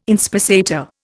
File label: "inspissator", Indian